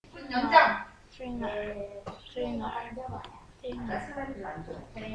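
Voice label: "singer", spoken word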